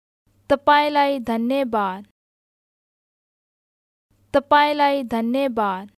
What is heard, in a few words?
Thank you, Nepali